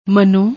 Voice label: "mano", Punjabi; ਮਨੋ